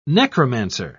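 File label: "necromancer", British English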